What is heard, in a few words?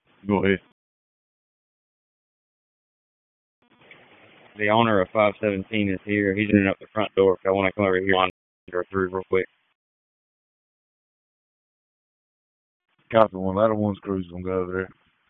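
FIRE Operations, p25; wake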